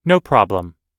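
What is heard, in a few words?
everyday apologizing, American English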